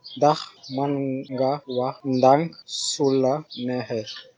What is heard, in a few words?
slowly, wolof; Ndax mën nga wax ndànk su la neexee?; Could you speak more slowly, please?